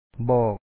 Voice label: "bO3Ok", Lao; to tell; ບອກ

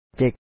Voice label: "ເຈັກ", Lao; ce#k Chinese

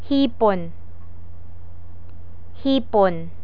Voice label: "hipon", Tagalog

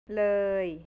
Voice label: "leuy", thai northeast; เลย; แผนที่ประเทศไทย

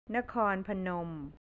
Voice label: "nakornpanom", thai; นครพนม; northeast; แผนที่ประเทศไทย

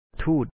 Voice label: "ທູດ", Lao; thu1ut

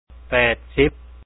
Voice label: 80, Lao; ແປດສິບ; pε̂εt-síp

